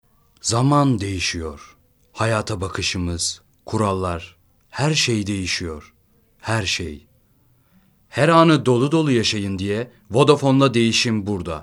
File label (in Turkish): REKLAM